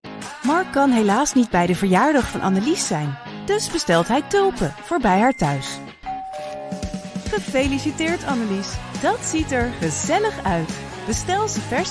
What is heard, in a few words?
Commercial Mid tone